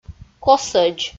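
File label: "косӧдз", Komi, female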